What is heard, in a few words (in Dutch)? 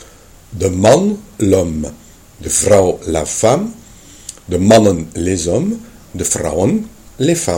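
"de", Dutch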